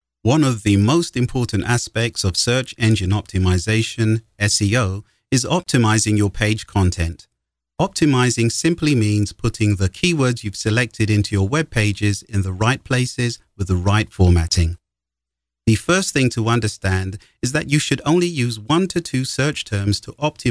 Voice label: eLearning, Male, british; International Voiceover, British, Narration, eLearning